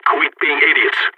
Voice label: scared emote, balkan

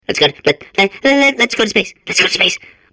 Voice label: babble; Portal 2

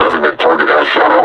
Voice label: announce attack cover, combine suppressor; Half Life Alyx

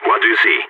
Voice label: request report, balkan; vo

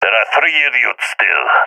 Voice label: enemies left three, balkan; vo; balkan epic

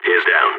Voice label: enemy down, balkan; vo

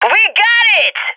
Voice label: cheer; Counter Strike Model Pack; CS:GO

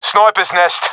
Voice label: loc snipers nest, professional epic, agents; vo; Source Model Packs; Counter Strike Model Pack; CS2

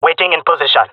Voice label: in position, jungle male; player vo; Counter Strike Model Pack; CS:GO

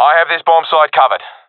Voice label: guarding bombsite, professional epic; Counter Strike Model Pack; CS:GO

